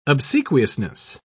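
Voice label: "obsequiousness", British English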